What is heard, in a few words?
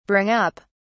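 "bring up", British English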